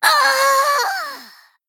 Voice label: Female Gnome Death